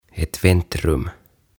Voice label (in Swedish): "Ett Väntrum", "Odotushuone"